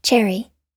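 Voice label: "cherry", American English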